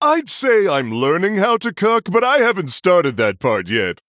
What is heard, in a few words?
Male Human, Picked